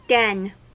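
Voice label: "den", American English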